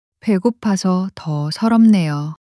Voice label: sadness, monologue